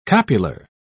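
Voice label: "copular", British English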